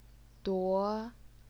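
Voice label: "duo", second tone, Chinese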